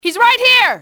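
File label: right here, enemy, english, female; XBOX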